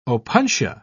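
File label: "opuntia", British English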